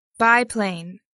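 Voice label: "biplane", English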